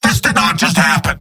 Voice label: scout jeers; mvm